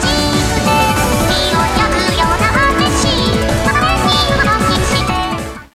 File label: chitchat, voice commands; svencoop; Miku